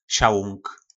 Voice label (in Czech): "Šałung", nářečí Po našimu; Bednění (pro beton)